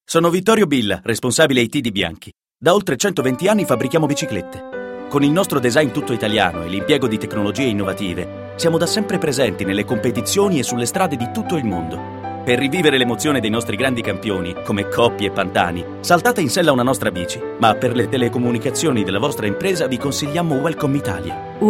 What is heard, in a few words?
Radio Spot; Bianchi